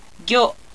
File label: "gyo", Japanese